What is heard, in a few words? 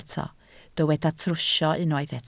"trwsio", Welsh